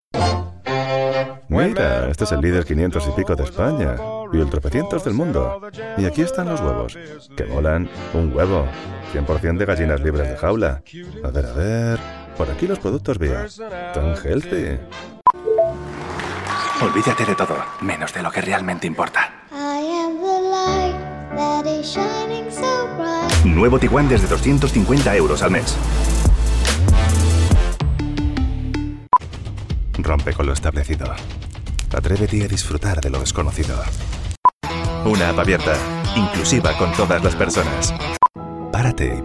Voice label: Commercial, Smooth and trustworthy Spanish speaker; announcer, authoritative, confident, conversational, cool, foreign-language, friendly; Commercial Reel